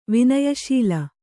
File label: "ವಿನಯಶೀಲ", Kannada; vinaya śīla